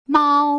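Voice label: "mao", Mandarin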